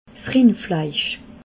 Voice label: "rindfleisch", alsace

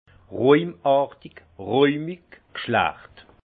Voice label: "rahmartig", alsace; vin; crémeux

